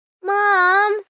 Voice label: Whine Mom, Whine, 1 child, 7 yrs; Whining

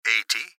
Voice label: Gps Voice, Male; Eighty; Speaker Adult Male; Recorded Voice; Blastwave

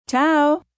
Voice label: "Ciao", Goodbyes, female, foreign, Italian; VoiceBox

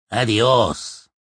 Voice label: Goodbye Spanish, Male

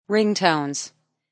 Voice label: Woman Says Ringtones; Woman talking; Talking (Sound Effects:Voice)

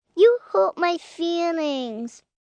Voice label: Hurt My Feelings, 1 girl, 5 yrs; Talking Sound Effects

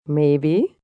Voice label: Maybe, female; Talking; Response; Voice Box

